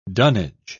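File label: "dunnage", British English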